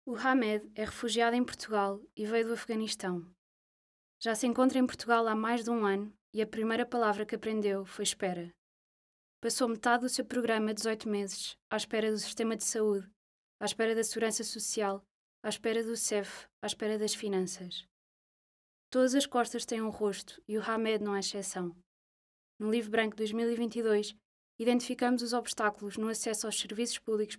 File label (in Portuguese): LOCUCAO